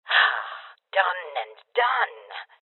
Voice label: Confirmation, Female Old

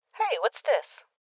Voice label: Voices Loot Spotted Female

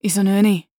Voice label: neutral short; VO shinobu

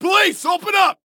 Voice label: Human Voice Loud Police Police Open Up

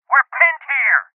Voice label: Voices Heavy Losses Male

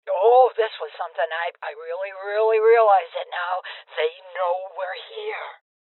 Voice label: Female Old

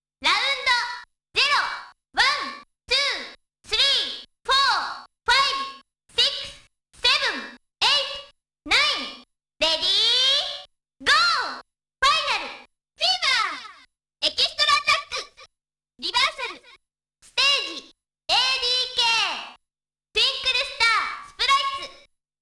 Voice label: Narration; Twinkle Star Sprites

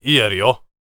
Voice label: warrior conversation emotion question

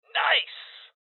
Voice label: Voices Loot Collect Male

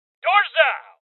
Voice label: Voices Door Kick Male; rebel cops 2019 gamerip

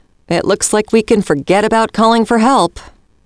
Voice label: Broken Radio Comm; Abyss The Wraiths of Eden; Vault

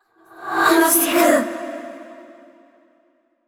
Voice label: Angry; Yamiko

